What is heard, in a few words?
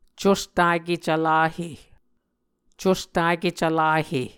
"custake cvlahe", muscogee